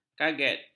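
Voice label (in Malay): "kaget", Malay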